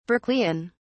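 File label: "berkeleian", English, British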